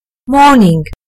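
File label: "morning", British English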